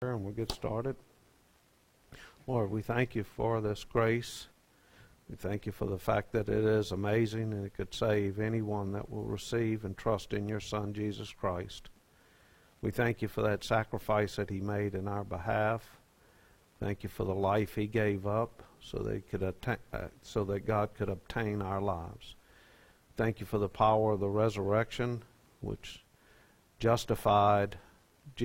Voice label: preaching